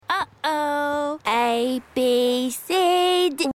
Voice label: toddler